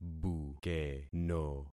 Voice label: "bukeno", concat syllables; Affixing experiment